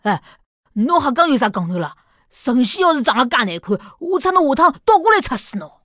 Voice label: 胖小孩, peiyin

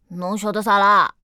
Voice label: 残疾小孩

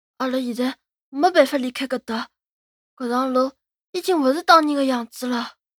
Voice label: 吕萍 男孩 对话

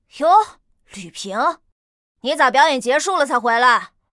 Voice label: 监督小孩, peiyin

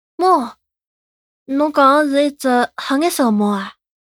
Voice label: 小男孩 问猫; c02 小男孩 问猫

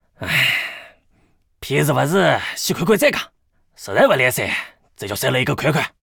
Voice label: 偷听对话 癞子

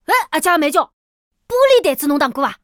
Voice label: 小男孩

